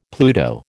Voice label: "Pluto", American